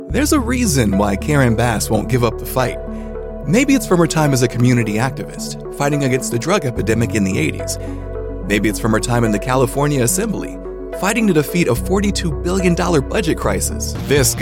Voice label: Political, voiceover